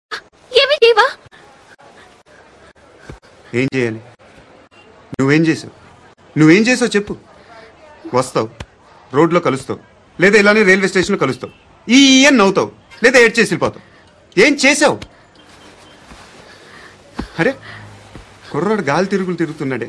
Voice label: Dialogue Telugu Ringtone; Upma; Pokiri

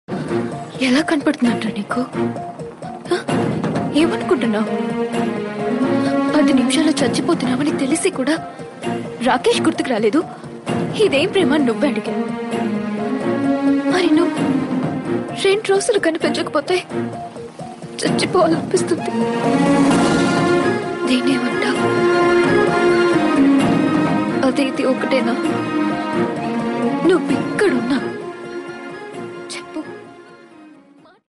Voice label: Emotional Dialogue Telugu Ringtone; Oosaravelli

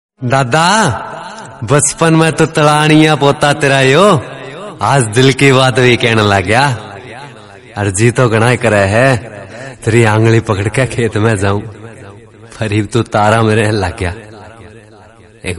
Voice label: Dialogue Ringtone

